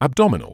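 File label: "abdominal", British English; æbˈdɒ.mɪ.nəl; ab-DO-mi-nuhl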